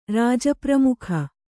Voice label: "ರಾಜಪ್ರಮುಖ", Kannada

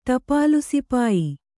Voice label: "ಟಪಾಲುಸಿಪಾಯಿ", Kannada

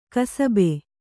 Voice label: "ಕುಸುಬು", Kannada

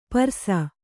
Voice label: "ಪರ್ಸ", Kannada

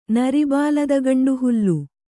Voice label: "ನರಿಬಾಲದಗಂಡುಹುಲ್ಲು", Kannada

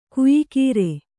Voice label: "ಕೊಯ್ಗುರಿ", kannada

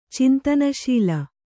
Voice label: "ಚಿಂತನಶೀಲ", kannada

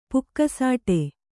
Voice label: "ಪುಕಸಟ್ಟೆ", kannada

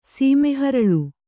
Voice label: "ಸೀಮೆಹರಳು", Kannada; sīme haraḷu; noun; its oil-yielding seed.

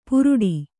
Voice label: "ಪುರುಡಿ", Kannada

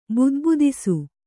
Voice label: "ಬುದ್ಬುದಿಸು", Kannada

